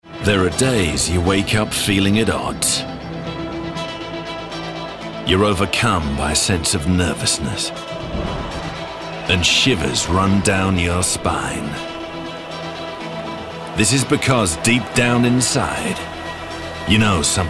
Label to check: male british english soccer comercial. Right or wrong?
Right